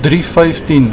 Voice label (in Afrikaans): "drie vyftien", Afrikaans